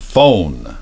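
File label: "phone", English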